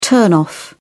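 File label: "turn off", British English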